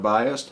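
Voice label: "biased", American English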